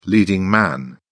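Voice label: "leading man", English, British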